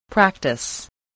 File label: "practise", voice, spelling